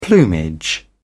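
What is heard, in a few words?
"plumage", British English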